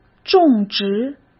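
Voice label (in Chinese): "种植", Mandarin Chinese; hsk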